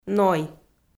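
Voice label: "ной", Komi-Zyrian, female; audio dict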